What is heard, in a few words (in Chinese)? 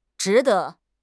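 "zhi2 de5", Chinese; HSK; HSK4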